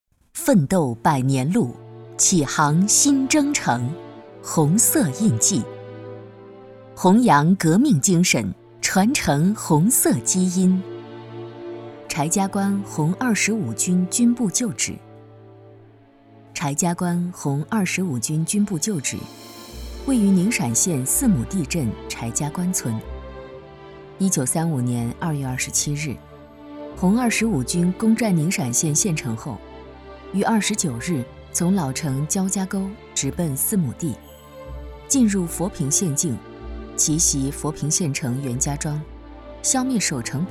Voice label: 女 红色印记 介绍 理性

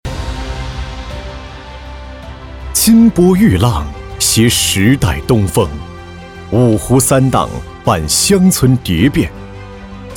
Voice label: 男 政府专题 乡村振兴; 又见锦溪

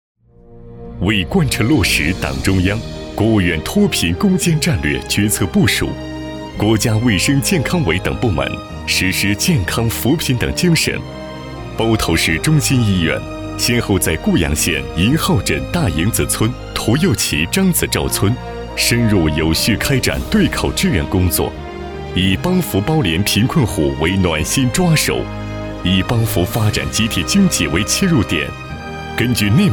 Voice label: 男 专题 扶贫工作

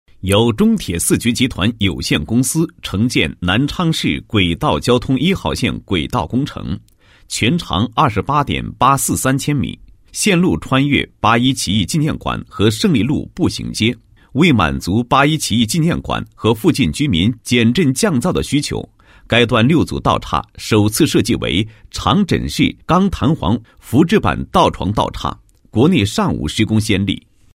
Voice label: 男 解说词; 长枕式钢弹簧浮置板道床道岔施工工法

